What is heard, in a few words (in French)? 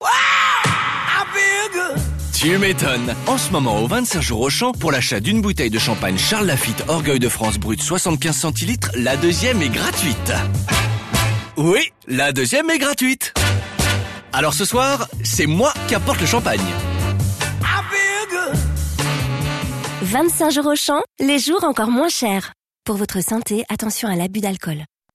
radio pub spot